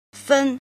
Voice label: "jin", Chinese